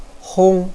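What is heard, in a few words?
"hong", Mandarin Chinese, first tone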